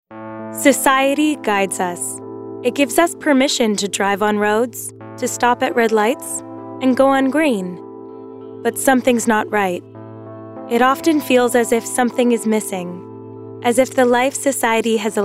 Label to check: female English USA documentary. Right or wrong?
Right